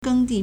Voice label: "geng1 di4", Chinese